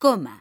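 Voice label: "COMA", Spanish; mekanta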